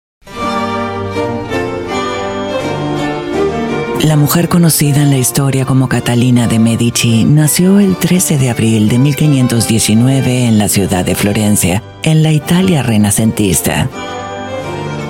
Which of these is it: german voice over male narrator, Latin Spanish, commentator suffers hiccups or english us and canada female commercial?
Latin Spanish